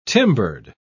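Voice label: "timbered", British English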